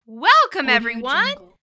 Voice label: female saying friendly welcome everyone

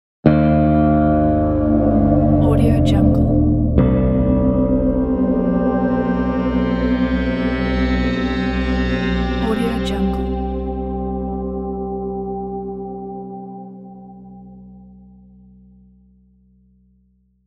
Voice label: wild west accent

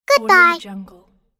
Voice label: cartoon girl "goodbye"

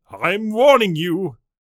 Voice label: knight vocal im warning you

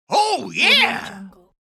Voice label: male voice oh yeah

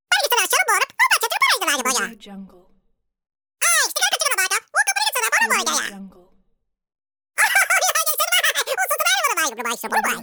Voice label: cartoon voice funny talking

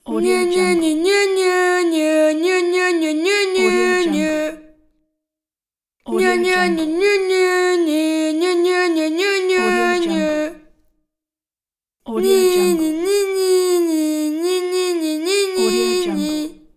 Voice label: girl teasing fail

